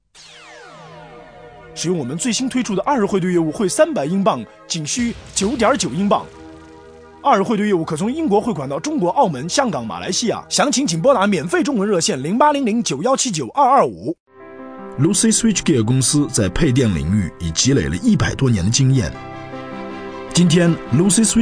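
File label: Chinese, Corporate; GoLocalise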